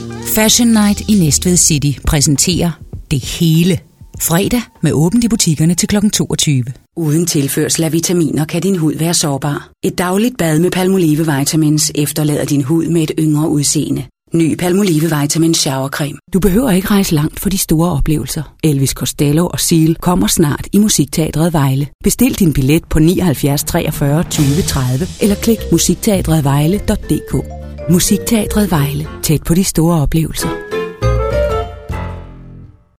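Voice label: danish female commercial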